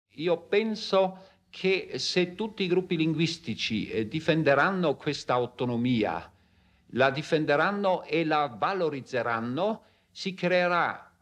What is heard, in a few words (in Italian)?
ITALIANO